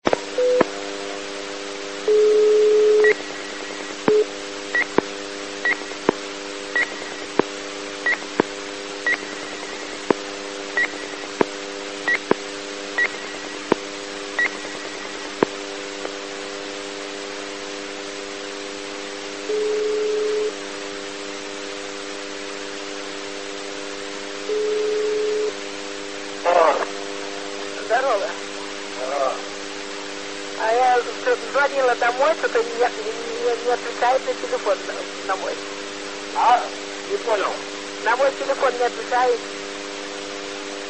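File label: Russian Phones, Satcom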